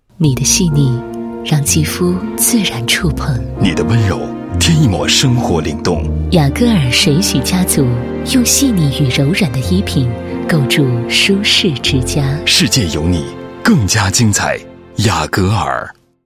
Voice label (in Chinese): 国语女声配音，广告 水洗家族 男女合配